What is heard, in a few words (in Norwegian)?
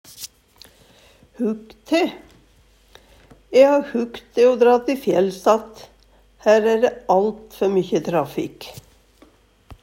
hug te, Numedalsmål; E ha hug te o dra te fjells att, hær æ dæ alt før mykjy trafik.